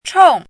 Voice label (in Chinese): "chong4", 汉字语音; chinese-voice